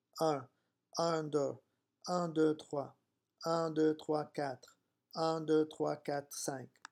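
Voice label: "un deux", French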